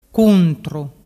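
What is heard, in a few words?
"cuntru", Vernazzese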